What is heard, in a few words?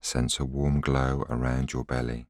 voiceover